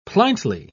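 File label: "pliantly", British English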